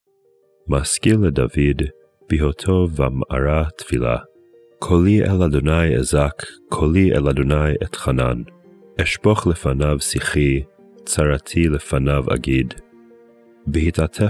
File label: poetry and prayer psalm hebrew